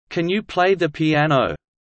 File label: low; studying abroad